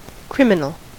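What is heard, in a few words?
"criminal", US English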